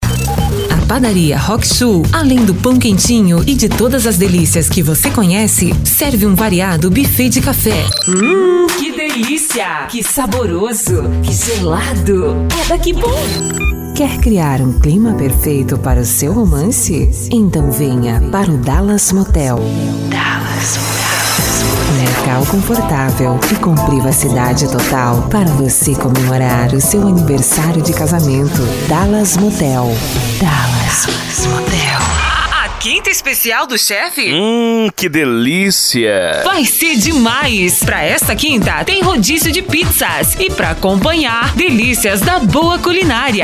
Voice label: locutora producao de offs vinhetas spots comerciais

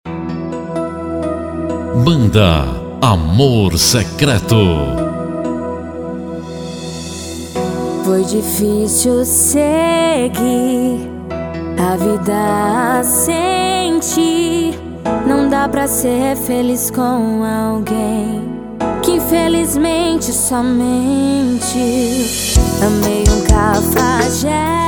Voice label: promocional; fim de ano

